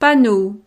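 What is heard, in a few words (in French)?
"panneau", French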